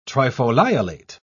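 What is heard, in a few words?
"trifoliolate", British English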